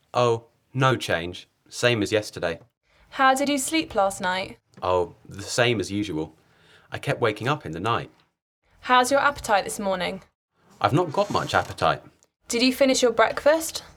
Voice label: Conversation; Bedside Manner Beginner